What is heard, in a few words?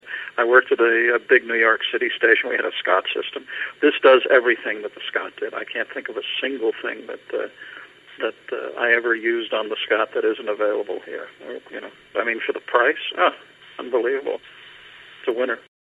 testimonial